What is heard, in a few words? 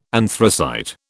"anthracite", British